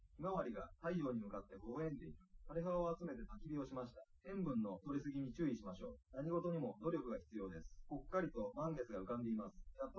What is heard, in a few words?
male liverec